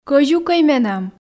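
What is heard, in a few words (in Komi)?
кӧзюкӧй менам, Komi, female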